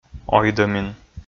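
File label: "ойдӧмин", Komi, male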